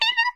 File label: teacher noise